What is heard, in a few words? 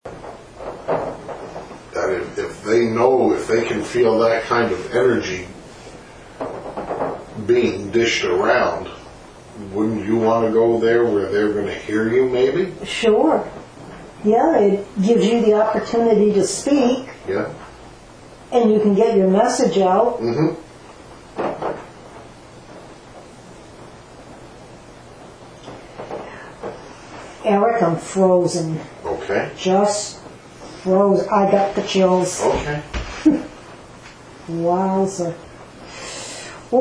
whispered get out